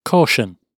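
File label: "caution", British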